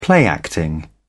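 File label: "play acting", British English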